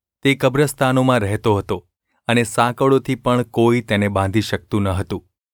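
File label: gu ulb mrk, Gujarati; mrk 5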